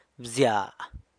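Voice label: "bzya", San Lucas Quiavini Zapotec; beans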